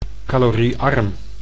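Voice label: "caloriearm", Dutch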